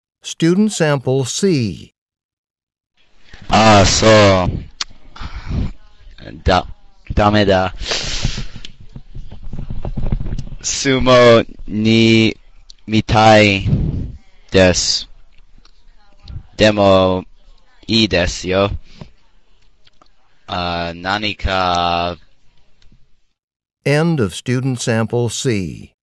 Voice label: japanese return telephone call

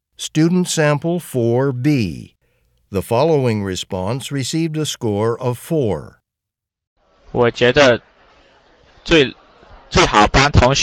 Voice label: chinese conversation

